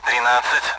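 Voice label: Speech Sound Russian Common AWACS Numbers; DCS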